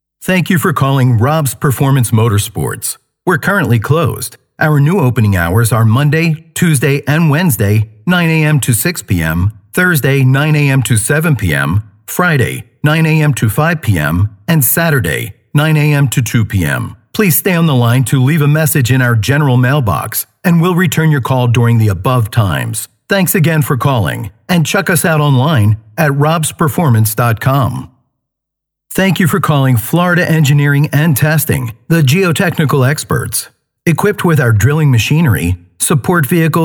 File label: US Male Phone